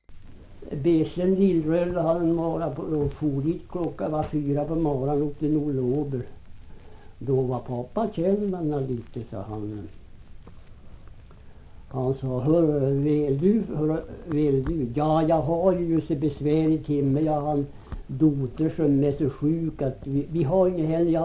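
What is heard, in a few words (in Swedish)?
Högsjö Ångermanland, dialekter; Folkminnen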